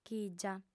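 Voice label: "chìgia", female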